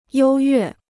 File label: "优越", Chinese